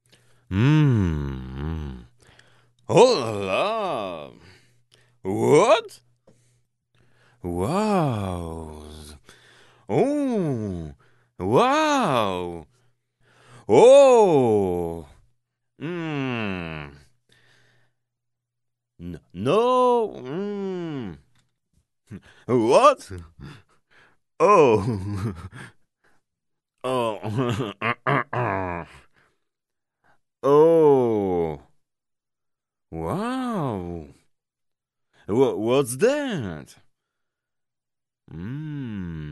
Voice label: male voiceover surprise